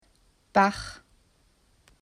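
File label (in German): "Bach", German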